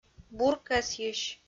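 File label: "буркӧсйысь", Komi, female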